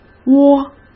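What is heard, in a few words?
"窝", Mandarin Chinese; chinese flashcards; hsk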